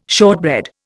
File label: "shortbread", Indian